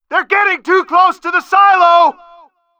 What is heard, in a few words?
loudspeaker return to base; outland 12